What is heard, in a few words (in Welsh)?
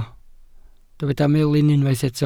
"melin", Welsh